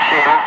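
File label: "5", spoken; Ka-50; Wingman; Digits; DCS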